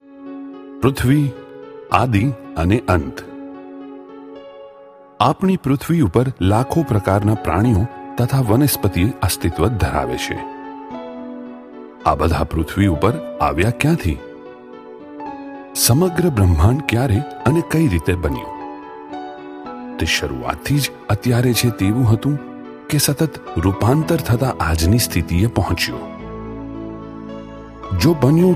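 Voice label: narration gujarati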